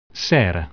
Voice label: "conservatory", dutch